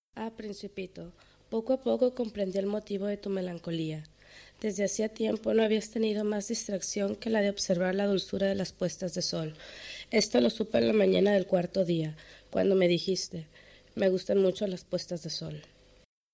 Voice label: Spanisch Culiacan

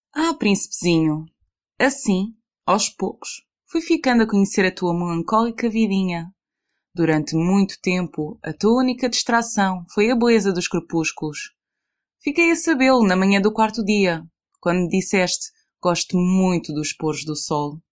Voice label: Portugiesisch, Almada

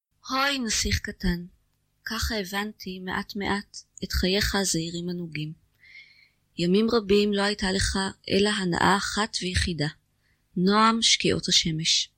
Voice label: Hebraeisch Israel

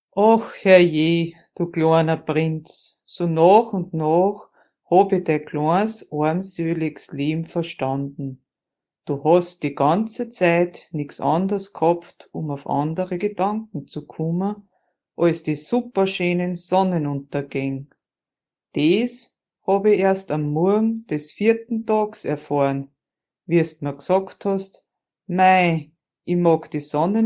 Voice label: Deutsch St. Poelten

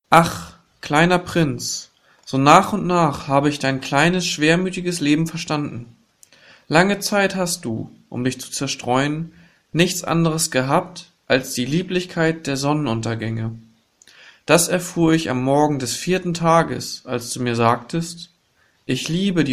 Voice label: Deutsch, Heede

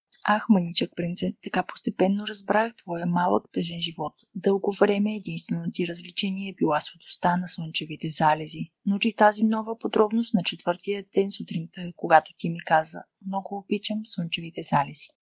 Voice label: Bulgarisch Sofia

